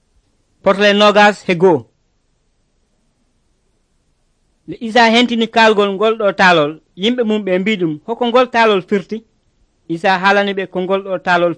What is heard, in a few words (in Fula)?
pulaar fulacunda, drama